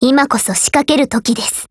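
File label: Attack, Japanese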